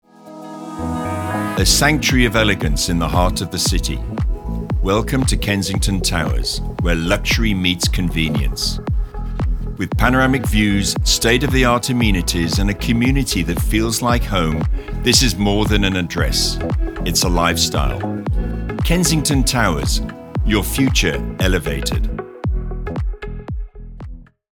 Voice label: Elegant Authentic